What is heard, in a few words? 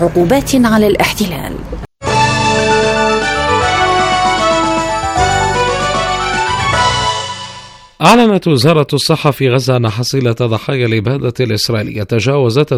Arabic